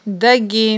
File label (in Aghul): "даги", aghul